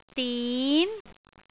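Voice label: "din2", Cantonese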